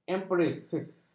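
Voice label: "empiris", Malay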